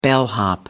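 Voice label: "bellhop", British English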